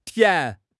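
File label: "tian2", Chinese; 汉语口语新时代-初级下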